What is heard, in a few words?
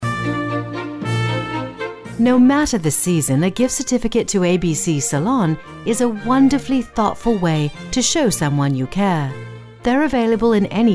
British